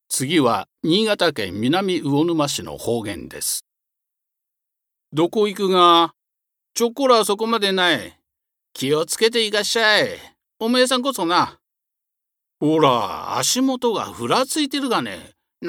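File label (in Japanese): 方言, 新潟弁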